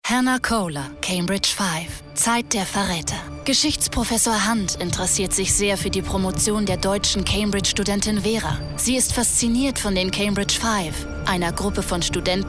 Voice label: Infomercial

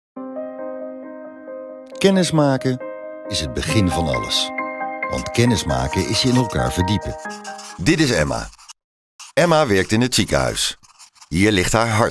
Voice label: VO, Dutch; Demo

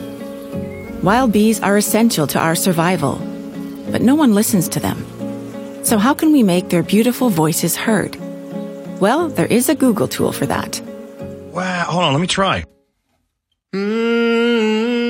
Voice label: Radio; WWF

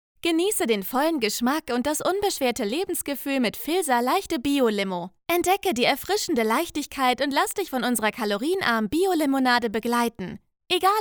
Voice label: Sample Commercial; VILSA

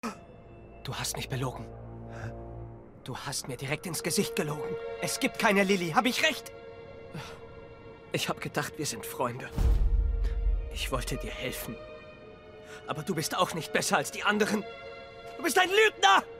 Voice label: Animation emotional

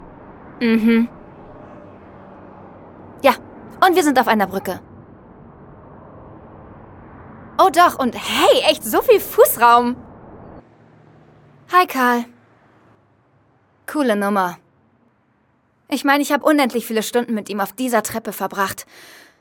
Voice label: Synchron, Lip-Sync, German; The Bold - Hörprobe

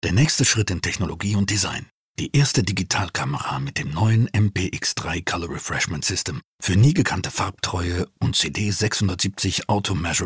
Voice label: off, Commercial (Werbung)

